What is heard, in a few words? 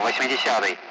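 Speech, Russian, 8th; Allied Flight; DCS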